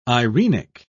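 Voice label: "eirenic", British English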